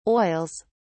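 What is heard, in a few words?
"oils", British English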